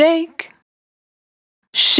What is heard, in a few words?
Shake - Dry, Vocal Samples; Words